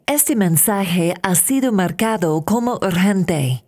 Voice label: message marked urgent spanish